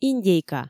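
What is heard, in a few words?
"индейка", Komi, female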